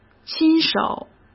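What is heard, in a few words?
"亲手", Mandarin Chinese; chinese-flashcards; hsk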